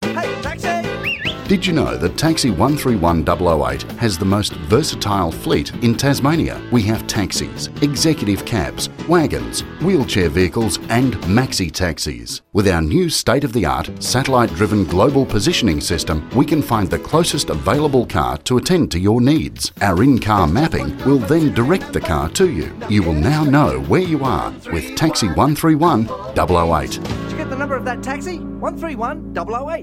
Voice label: On Hold